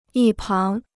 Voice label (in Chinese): "一旁", Chinese